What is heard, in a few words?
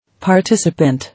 "Participant", English